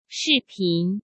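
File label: "视频", Mandarin Chinese; chinese-flashcards; hsk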